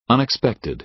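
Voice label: "unexpected", American English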